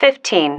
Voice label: "fifteen", female

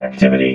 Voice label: "activity", robot vox

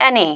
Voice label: "any", female

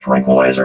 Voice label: "tranquiliser", robot

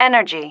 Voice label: "energy", female

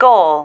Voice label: "goal", female

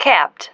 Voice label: "capped", female

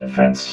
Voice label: "defense", robot vox

